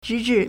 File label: "zhi2zhi4", Chinese